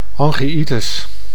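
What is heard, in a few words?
"angiitis", Dutch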